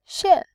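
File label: "xian", Mandarin Chinese, tone 4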